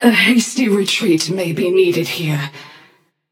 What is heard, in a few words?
health low passion; chaac